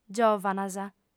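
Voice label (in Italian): "giòvanas", lingua sarda, female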